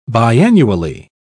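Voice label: "biannually", British English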